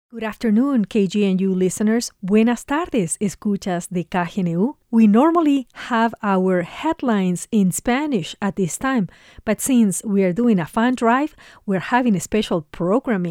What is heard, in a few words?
Bilingual Interview; SpringDrive; Titulares 7 de marzo, 2022